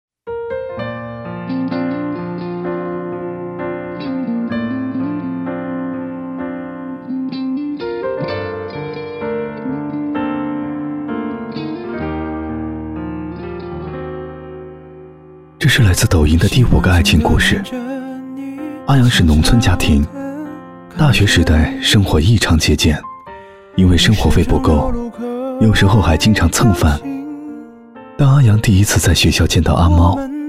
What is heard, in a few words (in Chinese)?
独白, 低沉走心; 抖音的第5个爱情故事